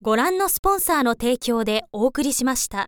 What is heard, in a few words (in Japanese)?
info lady